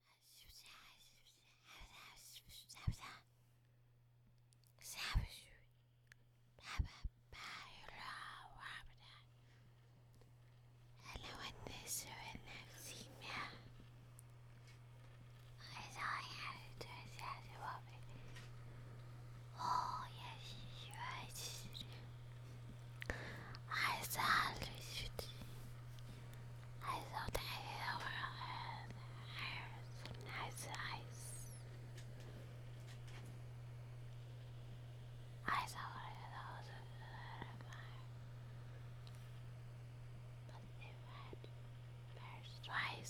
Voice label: Creepy whispering Home